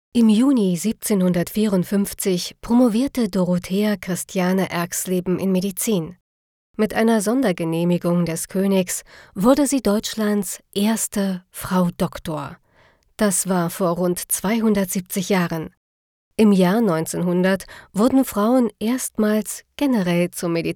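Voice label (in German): Ärztin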